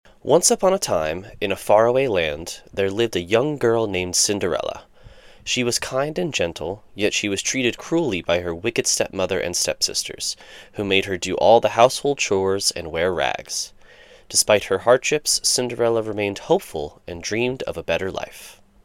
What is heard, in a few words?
American Accent